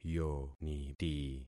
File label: "yoniti", concat syllables; Affixing experiment